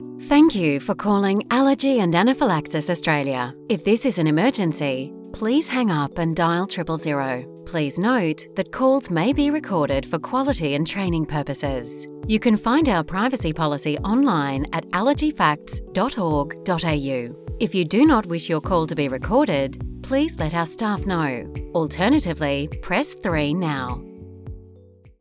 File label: Warm and Caring, voiceover